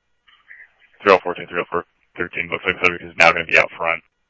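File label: Police Department, scanner audio; H-Scottsdale; ScottsdalePolice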